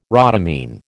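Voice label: "rhodamine", American English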